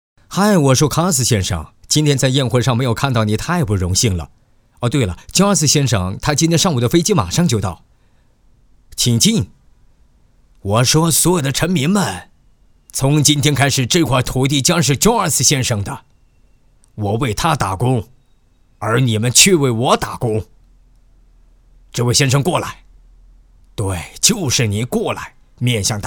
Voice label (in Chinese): 男 年轻浑厚 角色 老外译制片风格; 国语男声配音